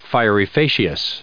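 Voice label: "fieri", US English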